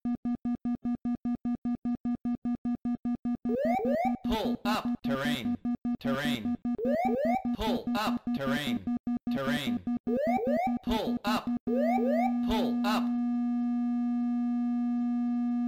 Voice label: gpws